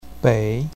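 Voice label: "bei3", Mandarin Chinese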